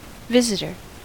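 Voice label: "visitor", US English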